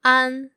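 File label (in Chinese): "an", Mandarin Chinese, female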